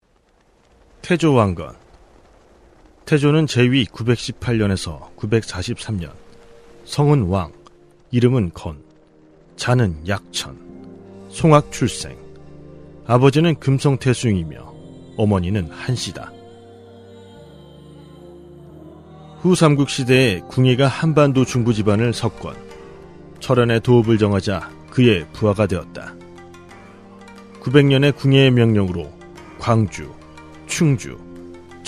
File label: korean documentaries